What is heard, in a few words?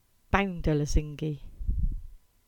Cornish; Lesingey Lane